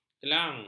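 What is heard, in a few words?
"kelang", Malay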